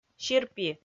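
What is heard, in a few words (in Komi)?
"сирпи", Komi, female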